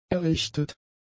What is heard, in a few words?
"errichtet", German; adjectives